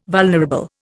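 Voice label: "vulnerable", Indian English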